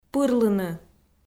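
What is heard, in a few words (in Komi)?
"пырлыны", Komi, female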